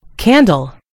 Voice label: "candle", en GB; gcompris

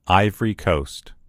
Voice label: "ivory coast", en US; gcompris; geography

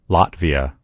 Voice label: "latvia", en US; gcompris; geography

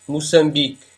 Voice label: "mozambique", pt; gcompris; geography

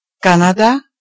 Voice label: canada, nl; gcompris-data; geography

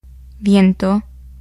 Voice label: wind, es; gcompris

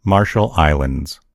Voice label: "marshall islands", en US; gcompris; geography

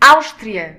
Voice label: "austria", pt; gcompris; geography

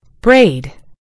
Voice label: "braid", en GB; gcompris

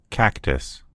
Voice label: "cactus", en US; gcompris

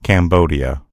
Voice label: "cambodia", en_US; gcompris; geography

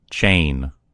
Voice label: "chain", en US; gcompris

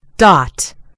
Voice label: "dot", en GB; gcompris

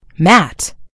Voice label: "mat", en GB; gcompris

